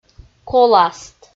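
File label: "коласт" Komi, female; audio dict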